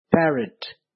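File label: "parrot", Nepali; grade4; vertebrates invertebrates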